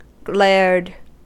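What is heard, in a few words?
"glared", US English; pronunciations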